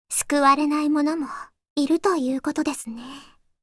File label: Voice victory